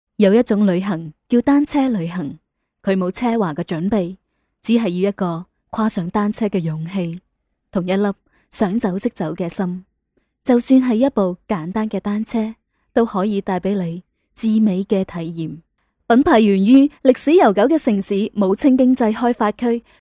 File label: chinese cantonese voiceover locutora china cantonesa; Chino cantonés (dialecto de Hong Kong y sur de China)